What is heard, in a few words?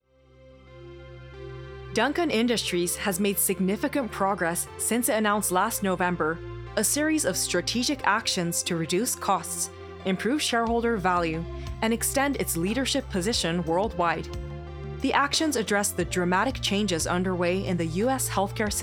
foreigner